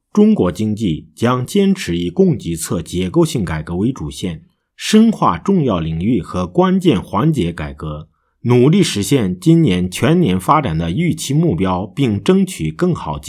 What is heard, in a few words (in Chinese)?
新闻